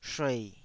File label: "shuei3", taiwanese Syllables